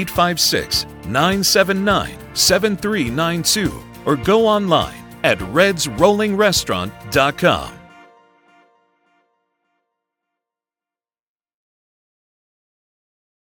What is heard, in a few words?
restaurant commercial; Reds Rolling Restaurant